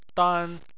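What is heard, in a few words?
"dan" first tone, Chinese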